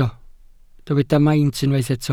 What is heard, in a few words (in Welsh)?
"maint" Welsh